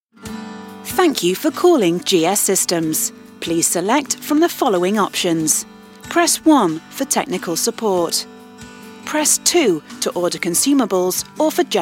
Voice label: On Hold; Voice-over demos; on hold voices

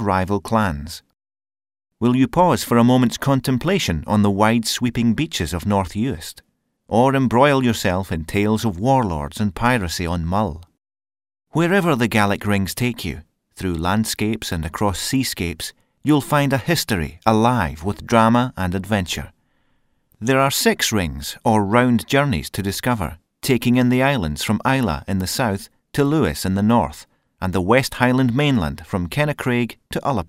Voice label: Corporate, Scottish Corporate; Voice-over demos

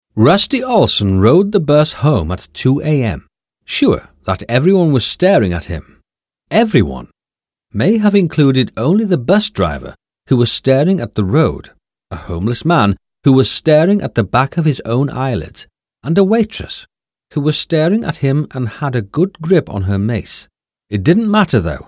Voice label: Audio books; England

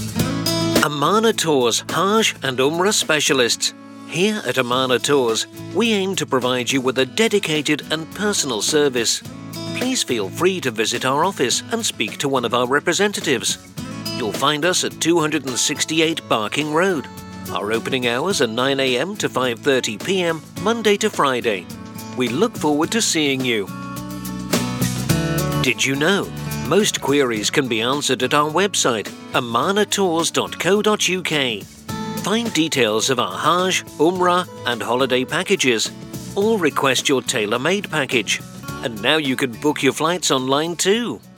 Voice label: On Hold; Voice-over demos; on hold voices

